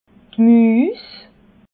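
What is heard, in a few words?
"muus", Alsatian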